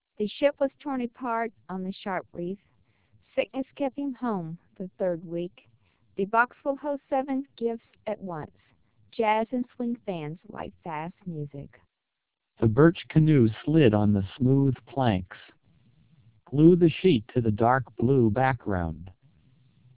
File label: American; TWELP DMR; vocoder; P.50 samples